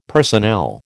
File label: "personnel", American